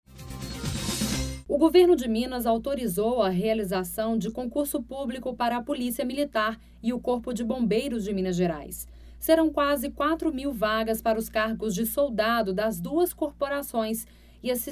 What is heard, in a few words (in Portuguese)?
boletim extra de radio; concurso publico para policia militar e corpo de bombeiros